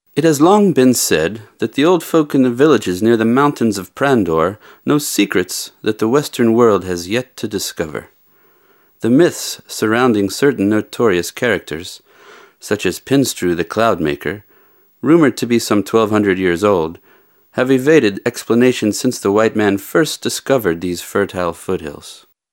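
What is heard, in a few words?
male English documentary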